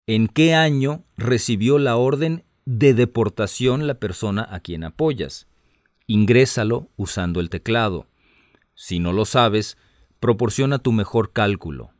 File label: Spanish, hotline